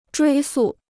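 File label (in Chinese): "追溯" Chinese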